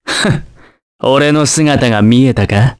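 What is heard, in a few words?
Vox Victory, voices, Japanese; kingsraid; voices heroes jp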